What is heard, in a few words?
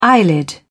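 "eyelid", British English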